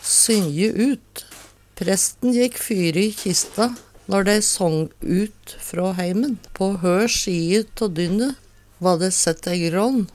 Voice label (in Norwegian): synje ut, Numedalsmål